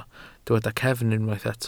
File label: "cefn", Welsh